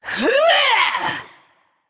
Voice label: Female Naga Attack

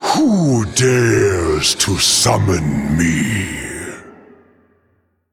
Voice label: Male Elemental Introduction

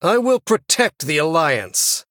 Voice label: Male Human

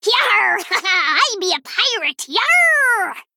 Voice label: Female Gnome

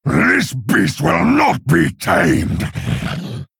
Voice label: Male Orc

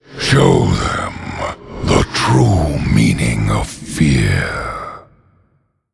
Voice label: Male Undead Bark; Arthas

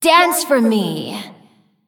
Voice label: Female Shivarra Attack

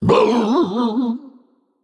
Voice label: Male Naga Death

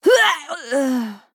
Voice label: Female Human Death

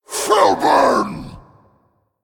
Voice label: Male Overfiend Attack

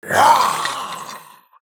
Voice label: Male Skeleton

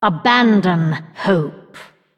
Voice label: Female Undead; Anduin; Hero Power

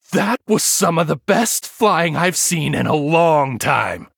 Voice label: Male Human; First Place

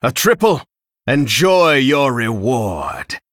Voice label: Male Dragon Triple

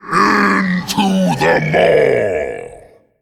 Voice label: Male Faceless Attack

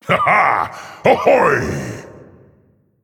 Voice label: Male TitanForged Emote PIRATE DAY

